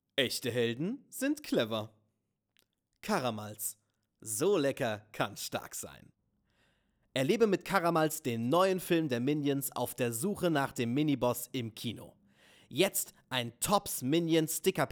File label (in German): Werbung; Karamalz